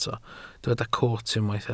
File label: "coot", Welsh